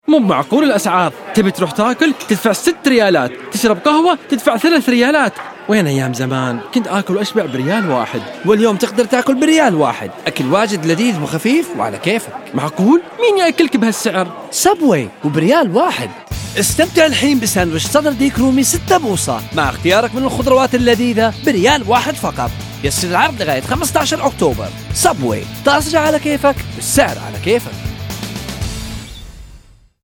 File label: Subway, Omani